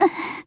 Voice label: die, Voice Line, JPN; Eternal Return; Tia